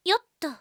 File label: win max, Japan Anime Girls; Windows; Bing; Nanami